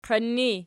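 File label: "qiin" ergative, Archi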